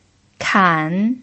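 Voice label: "kan" third tone, pinyin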